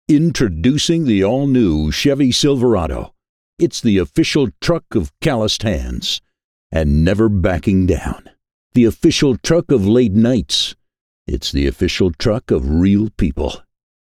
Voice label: Deep Demo